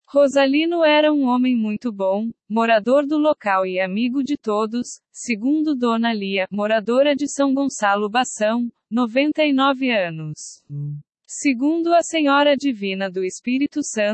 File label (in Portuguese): beco narrativa